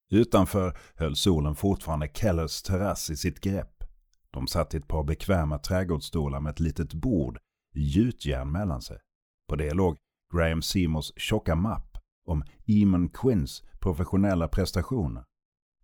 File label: male swedish voice over talent narrative